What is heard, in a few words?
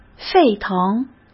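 "沸腾", Mandarin Chinese; hsk; chinese-flashcards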